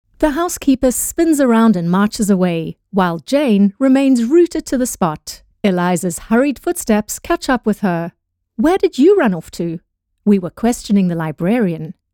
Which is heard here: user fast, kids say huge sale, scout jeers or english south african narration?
english south african narration